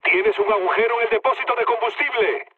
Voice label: damaged oil, mood low, speech; War Thunder

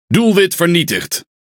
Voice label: NL Speech Pilots Bomber Tango down; World Of Warships

